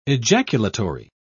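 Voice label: "ejaculatory", British English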